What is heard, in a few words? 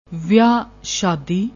"viah shaddi", Punjabi; ਵਿਆਹ ਸ਼ਾਦੀ